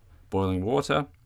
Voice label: boiling water, Voice recordings, Australian, Improv